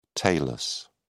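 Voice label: "talus", British English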